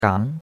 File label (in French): "gang2", chinois; Cours-de-Chinois